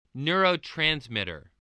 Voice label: "neurotransmitter", voice